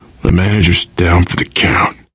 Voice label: grief manager, survivor voice